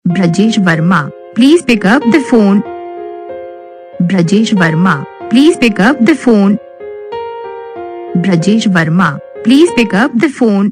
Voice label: please pickup the phone saying, Ringtones